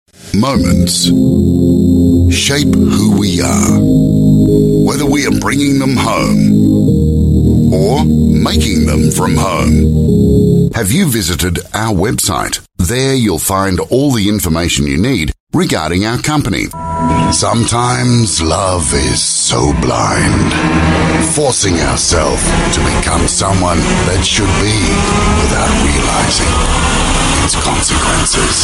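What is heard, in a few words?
Australian English commercial voice sample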